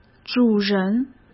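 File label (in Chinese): "主人", Mandarin Chinese; hsk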